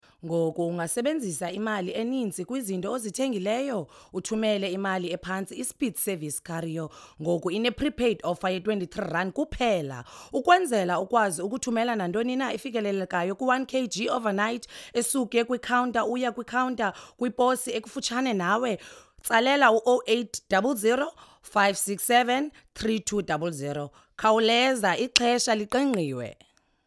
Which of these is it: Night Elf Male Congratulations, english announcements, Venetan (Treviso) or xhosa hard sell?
xhosa hard sell